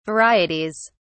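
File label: "varieties", British English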